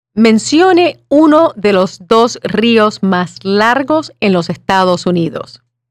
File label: Spanish